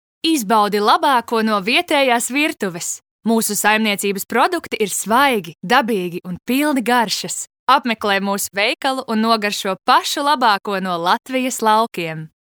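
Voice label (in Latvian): Reklama Latvian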